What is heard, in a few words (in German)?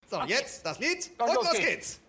ansage; eventphone; rc3 world